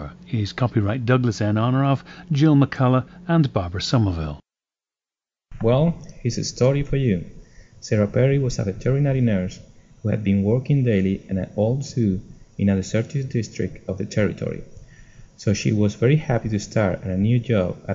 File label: spain